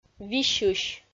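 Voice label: "висьысь", Komi, female; audio dict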